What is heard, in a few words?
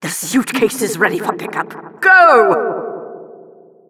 announcer drop site